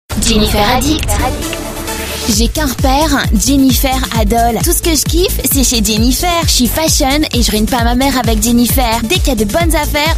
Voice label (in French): pub, voix off; JENNYFER